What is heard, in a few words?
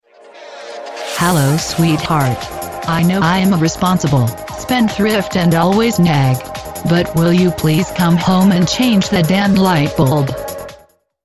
Lightbulb Female